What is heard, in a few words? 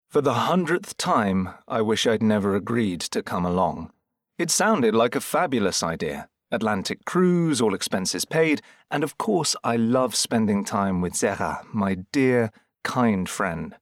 Audiobook; MurderBelowDeck